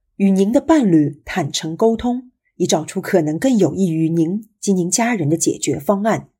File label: Chinese Female; Bromberg & Associates